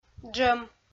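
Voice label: "джӧм", Komi, female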